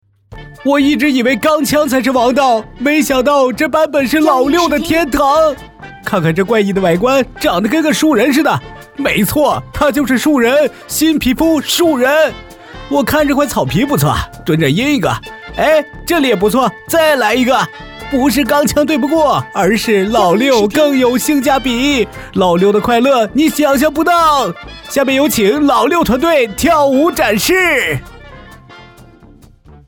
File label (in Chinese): 新媒体配音 青年（18-35）; 和平精英老六天堂